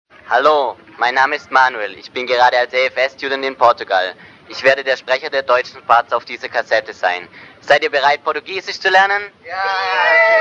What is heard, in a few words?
Introduction german